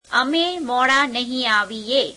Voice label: gujarati we will not come late